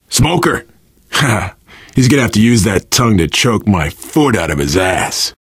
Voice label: heard smoker, player survivor voice biker; source engine; left 4 dead 2

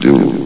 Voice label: "dual", vox; Source Engine; bshift

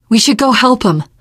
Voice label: inside saferoom, teen girl; survivor voice

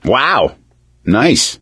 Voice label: nice job, gambler, survivor voice; source engine; left 4 dead 2

